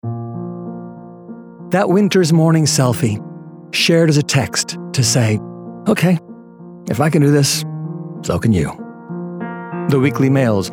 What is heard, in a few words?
Calm, Lyrical, Uplifting; 3 Mobile